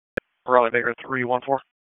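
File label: Police Dispatch, p25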